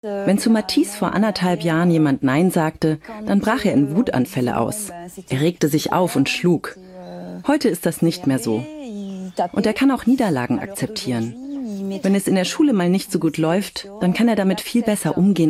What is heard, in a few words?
Voice Over